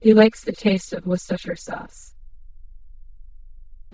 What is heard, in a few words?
speaker generated female; worcestershire